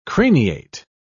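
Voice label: "craniate", English, British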